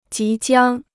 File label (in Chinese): "即将", Chinese